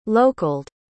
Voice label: "localed", pronounce